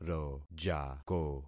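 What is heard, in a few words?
"rojako", concatenated syllables; Affixing experiment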